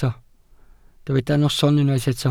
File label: "noson", Welsh